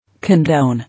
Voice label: "Condone", English

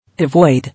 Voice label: "Avoid", English

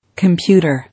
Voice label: "Computer", English

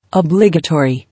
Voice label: "Obligatory", English

